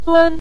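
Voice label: "dun" Mandarin Chinese, neutral tone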